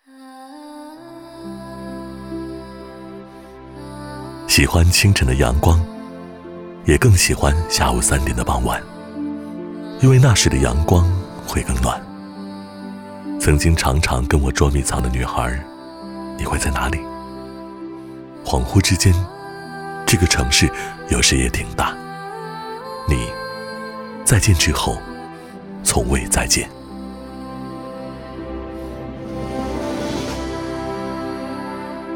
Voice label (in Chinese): 男 独白 暖心自然